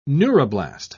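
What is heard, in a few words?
"neuroblast", British English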